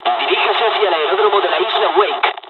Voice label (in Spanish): fly, dialogs spanish; War Thunder